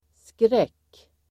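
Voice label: "skräck", Swedish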